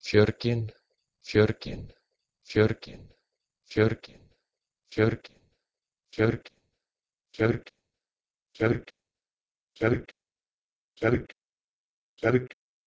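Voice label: Icelandic Fjorgynn from Proto-Indo-European pergh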